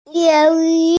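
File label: "crocodile", hearing impaired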